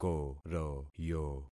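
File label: "koroyo", concatenated syllables; Affixing experiment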